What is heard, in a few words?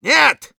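no, Russian; «Нет!»; Medic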